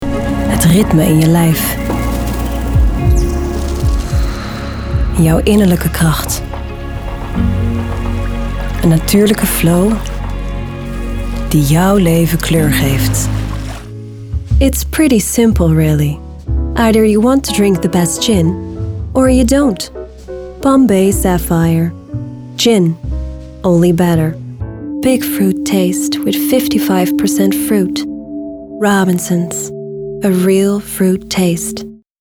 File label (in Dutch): Warm en zwoel NL ENG Stemdemo